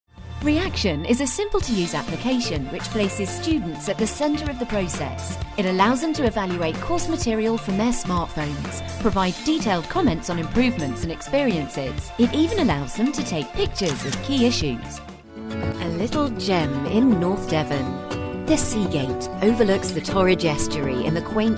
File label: Corporate